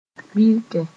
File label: "birkɛ", Mooré